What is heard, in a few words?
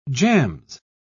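"jams", British English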